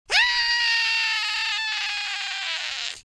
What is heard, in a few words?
scared, Irish; speech